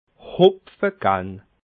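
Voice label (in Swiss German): "hopfegenn", Alsatian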